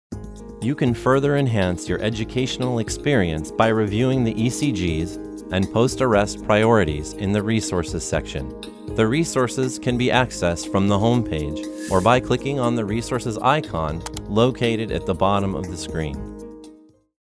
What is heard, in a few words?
Elearning, e-learning, narration